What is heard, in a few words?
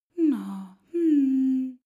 female comfort; koerpergeraeusche